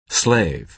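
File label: "slave", American English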